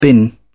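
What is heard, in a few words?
"bin", English, uk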